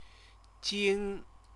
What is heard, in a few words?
"jing", Chinese